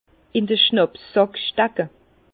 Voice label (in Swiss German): "schnapssack", Bas Rhin; vin